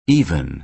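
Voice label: "even", American English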